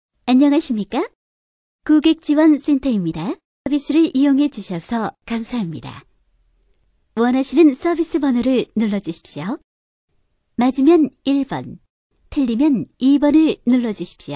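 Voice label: Korean, female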